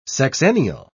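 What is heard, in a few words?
"sexennial", British English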